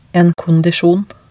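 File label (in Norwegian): "en kondisjon", Norwegian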